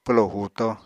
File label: "pwlo huuto", Apatani